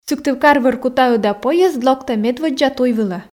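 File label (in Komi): сыктывкар-вӧркута ӧда поезд локтӧ медводдза туй вылӧ, Komi, female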